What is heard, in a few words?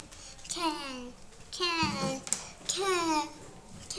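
"can", spoken word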